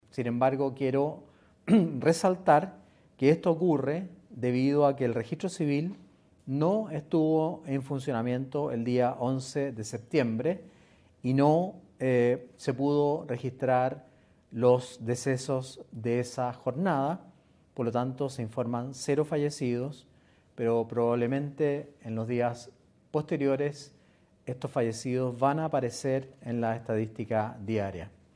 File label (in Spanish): cuña; PARIS